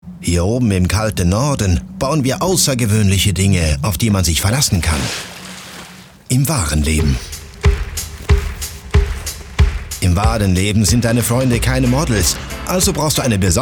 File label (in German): Werbung DE mit Akzent; Nokia